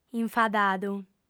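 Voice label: "infadadu", Sardinian, female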